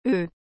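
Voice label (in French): "œufs", French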